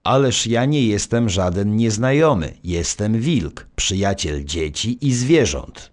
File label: narr; Szkola Koziolka; CyberMycha 2003 October